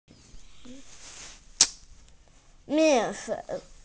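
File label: "mian4fen3", Mandarin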